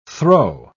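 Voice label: "throe", British English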